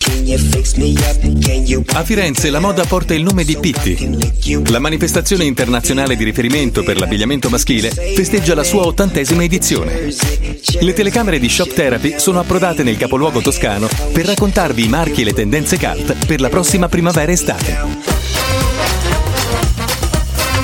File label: Italian